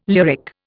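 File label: "lyric", Indian English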